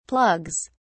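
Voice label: "plugs", British English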